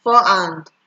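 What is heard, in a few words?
"fallhand", Nigerian Pidgin, female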